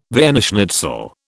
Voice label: "Vienna schnitzel", British

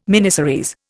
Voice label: "miniseries", Indian

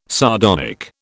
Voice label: "sardonic", British

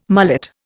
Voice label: "mullet", Indian

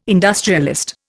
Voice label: "industrialist", Indian

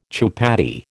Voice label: "chupatty", American

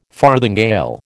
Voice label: "farthingale", American

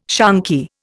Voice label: "shonky", Indian

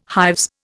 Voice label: "hives", Indian

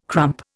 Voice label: "crump", Indian

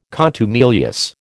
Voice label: "contumelious", American

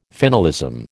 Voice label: "finalism", American

